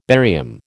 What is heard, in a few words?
"barium", American